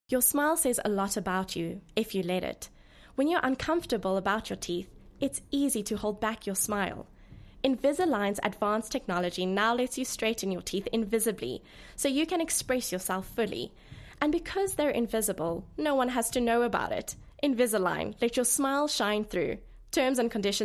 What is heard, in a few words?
English Conversational